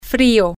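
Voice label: cold, spanish, female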